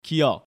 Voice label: "Giyeok", Korean